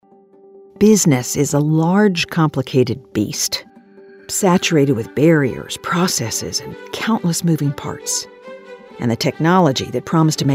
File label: female business technology